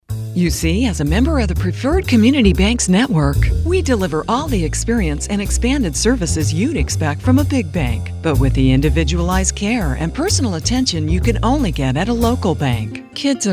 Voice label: Commercials